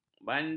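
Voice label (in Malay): "bandut", Malay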